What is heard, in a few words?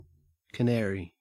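"canary" English, Australian accent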